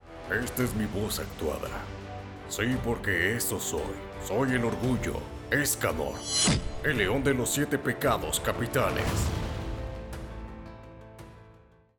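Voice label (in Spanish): Actuada, hombres; locutor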